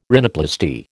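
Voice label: "rhinoplasty", American English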